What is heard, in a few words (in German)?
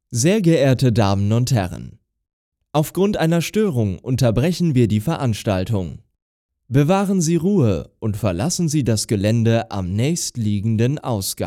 evakuierung deutsch english